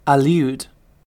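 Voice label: "allude", British English; pronunciations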